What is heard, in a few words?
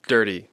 "dirty", English